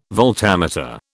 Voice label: "voltameter", British